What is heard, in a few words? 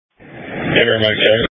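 Emergency Medical Services Dispatch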